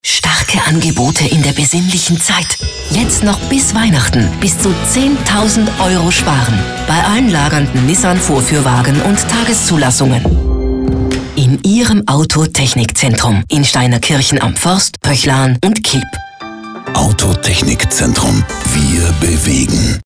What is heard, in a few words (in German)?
spot; ATZ Steinakirchen Advent 2017 Nissan